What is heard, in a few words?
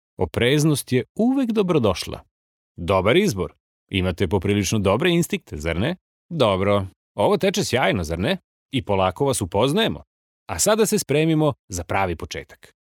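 Serbian, male, audioguide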